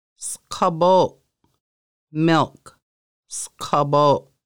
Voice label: milk, Lushootseed; Conversational Lushootseed